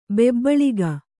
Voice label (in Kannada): "ಬೊಬ್ಬುಳಿಕೆ", Kannada